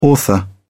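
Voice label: "author", British English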